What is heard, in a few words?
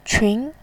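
"qun", Mandarin Chinese, second tone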